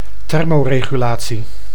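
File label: "thermoregulatie", Dutch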